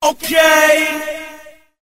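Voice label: "okay", male voice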